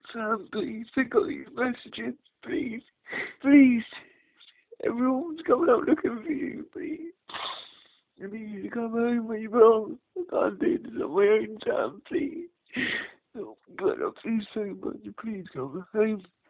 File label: voicemail message